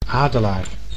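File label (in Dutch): "adelaar", Dutch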